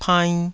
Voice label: "painn2", taiwanese